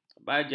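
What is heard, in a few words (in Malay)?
"bajang", Malay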